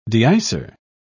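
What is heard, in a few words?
"deicer", British English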